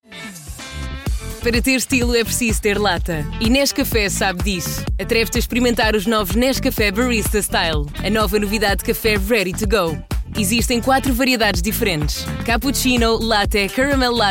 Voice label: SPOT